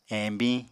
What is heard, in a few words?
"eñbiñ", Apatani